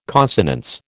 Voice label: "consonance", American

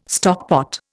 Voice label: "stockpot", Indian

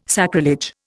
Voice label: "sacrilege", Indian

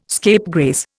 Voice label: "scapegrace", Indian

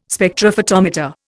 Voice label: "Spectrophotometer", Indian

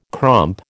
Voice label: "crump", American

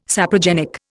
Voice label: "saprogenic", Indian

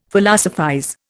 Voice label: "Philosophize", Indian; Dictionary

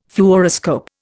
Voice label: "Fluoroscope", Indian

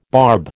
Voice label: "barb", American

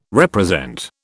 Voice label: "represent", British

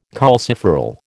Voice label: "calciferol", American

